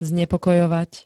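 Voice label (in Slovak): "znepokojovať", Slovak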